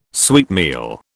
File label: "sweetmeal", British